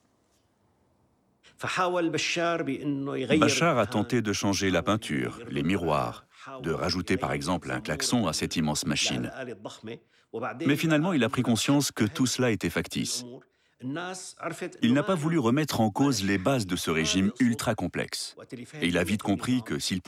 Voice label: voice over